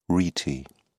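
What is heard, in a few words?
"rete", English, British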